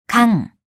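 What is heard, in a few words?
"강", Korean; TOPIK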